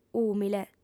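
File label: "ùmile", Sardinian, female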